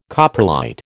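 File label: "coprolite", American English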